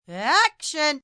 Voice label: Action F, director, female 'Action'; Film, movie, direction; human voice speaking; Talking Sound Effects

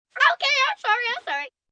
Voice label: "Okay Sorry Sorry", Etiquette, 1 child, 7 yrs.; Talking

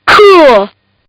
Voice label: Kid Says Cool; Talking; Partners In Rhyme

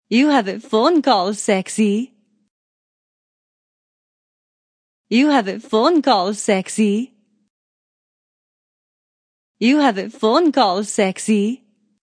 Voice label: Female Phonecall Sexy Accent; Ringtone

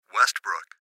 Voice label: Gps Voice, Male; Westbrook; Technology; Recorded Voice; Blastwave

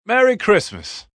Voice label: Merry Xmas, Male; Holiday Sound Effects; VoiceBox; Twistedtracks

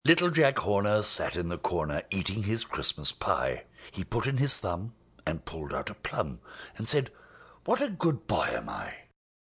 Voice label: Rhyme, Nursery rhyme, speaking, male; Jack Horner

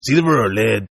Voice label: Toughguy Silver English, Tough Hispanic Male; Talking

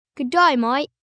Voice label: Aussie Gday Mate, Greeting, 1 boy, 11 yrs; Talking Sound Effects

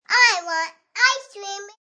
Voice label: Kid Says I Want Ice Cream, Kid talking; Voice; Talking Sound Effects

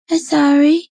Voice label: Im Sorry, Female; Talking Sound Effects

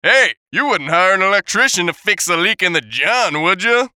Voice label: VOICE COMICAL; You WouldnT Hire An Electrician; Funny Sound; Radio Voice; Blastwave

